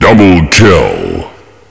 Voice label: kill, woman